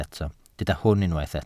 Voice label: "hwn" Welsh

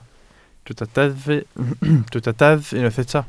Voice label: "deddf" Welsh

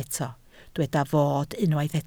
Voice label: "fod", Welsh

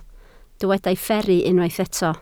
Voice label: "fferru", Welsh

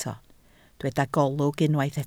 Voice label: "golwg", Welsh

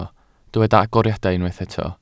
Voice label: "agoriadau", Welsh

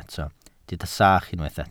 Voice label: "sach", Welsh

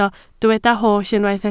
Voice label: "holl", Welsh

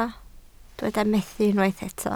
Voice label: "methu", Welsh

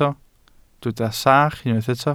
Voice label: "sach", Welsh

